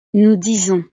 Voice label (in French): "nous disons", frances